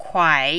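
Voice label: "kuai", Mandarin, third tone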